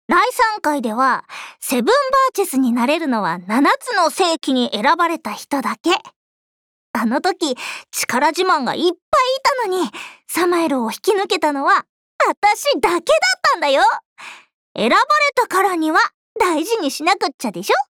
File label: voice jp; Lisbell